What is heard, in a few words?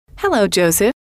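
hello, female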